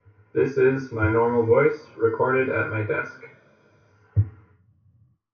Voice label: voice synthesized